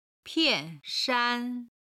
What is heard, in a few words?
"pian4shan1", chinese